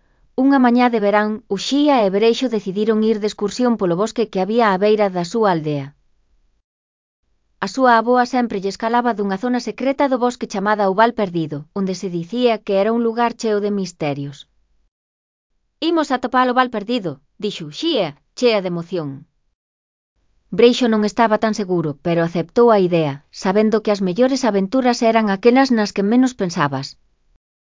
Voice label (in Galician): NARRACION